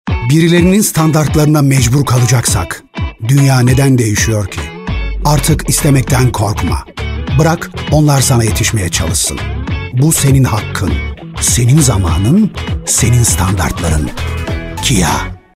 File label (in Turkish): reklam; kia